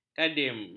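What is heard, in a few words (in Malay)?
"kadim", Malay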